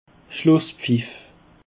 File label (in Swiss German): "schlusspfiff", Alsace